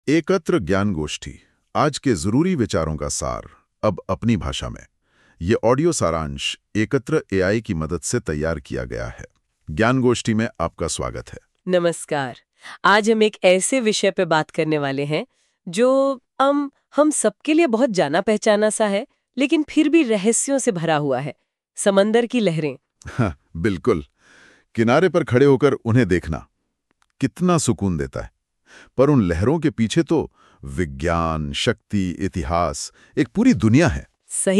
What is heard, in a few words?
Audio Hindi; Waves